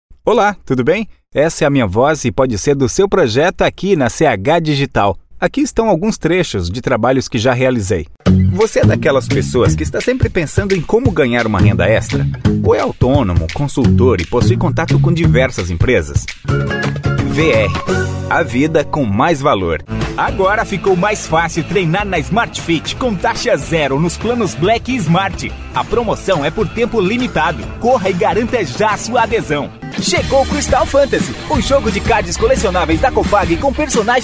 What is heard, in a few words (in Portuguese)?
Voz Masculina